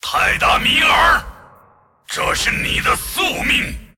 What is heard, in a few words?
attack, zh; Aatrox